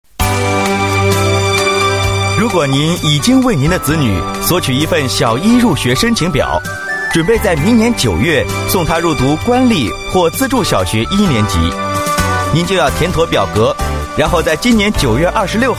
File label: Mandarin voice sample, China male